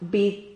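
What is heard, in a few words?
"bid", Spanish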